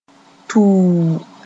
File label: "tuu", moore